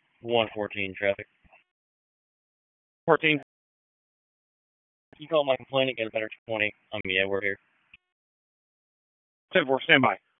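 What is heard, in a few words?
Police Northeast District Dispatch, p25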